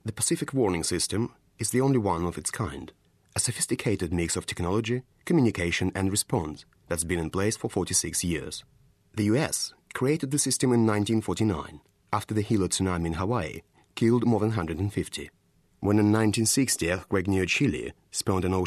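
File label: Russian, English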